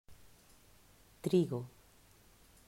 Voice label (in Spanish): "Trigo", pronunciacion; mausschool